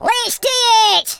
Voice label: come on then, Scotsman; speech